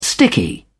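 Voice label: "sticky", British English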